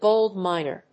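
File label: "gold miner", English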